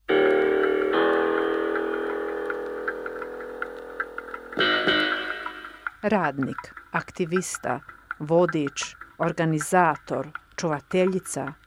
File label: Croatian